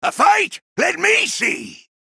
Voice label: Male Dwarf